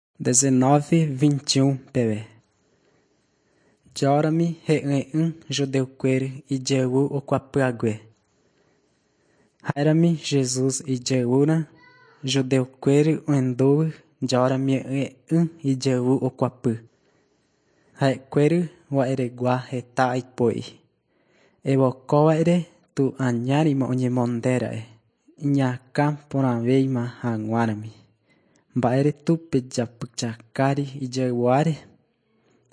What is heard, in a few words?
john guarani mbya, bible